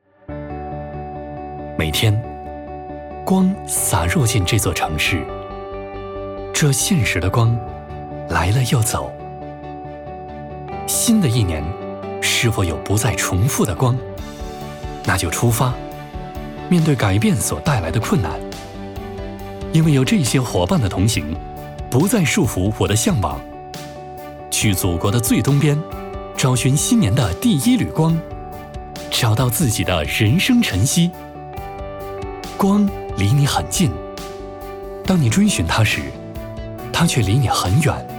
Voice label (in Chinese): 男 积极向上